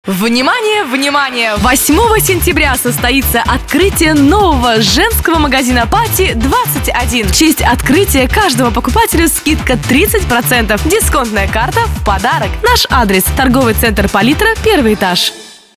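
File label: radio advertisement; Love radio; radio Enerdzhi; Reklama na radio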